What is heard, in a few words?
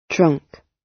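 "trunk", British English; Time, history; Thời gian, lịch sử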